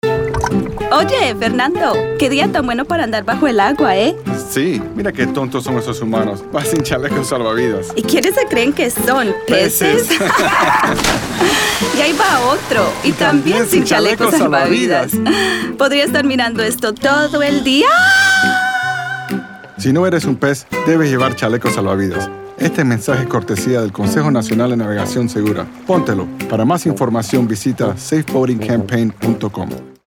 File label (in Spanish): Silly Humans Radio Spanish